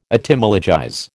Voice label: "Etymologize", American